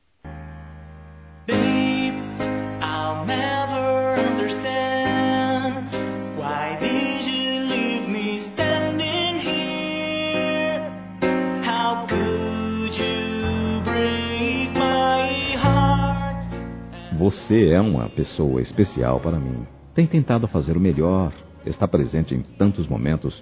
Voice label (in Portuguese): Obrigado pela Ajuda Masculino; Telemensagens; Telemensagens 2017